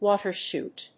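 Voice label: "water chute", English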